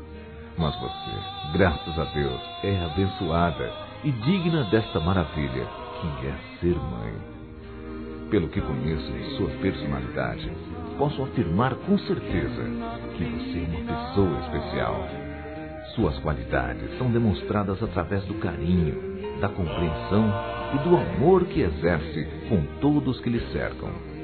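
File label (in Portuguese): NEUTRO P MÃES, male, Telemensagens Dia das Mães; Masc; Telemensagens 2017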